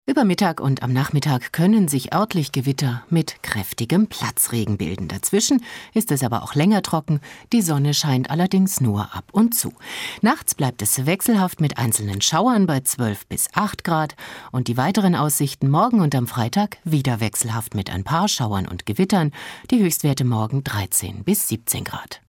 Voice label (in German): Wetter doppelt komprimiert langsam schnell